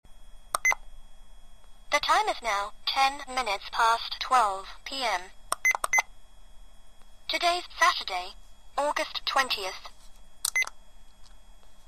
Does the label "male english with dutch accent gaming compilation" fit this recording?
No